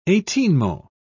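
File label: "eighteenmo", British English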